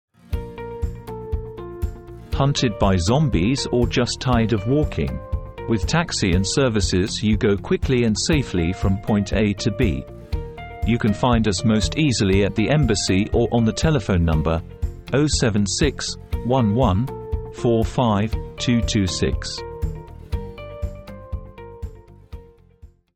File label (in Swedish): Taxi